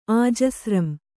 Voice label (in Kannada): "ಆಜಸ್ರಂ", Kannada